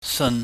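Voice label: "seun", Cantonese, mid level tone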